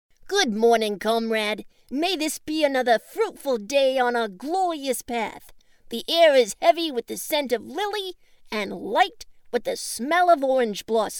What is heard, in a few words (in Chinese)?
外籍英女 变声播报新闻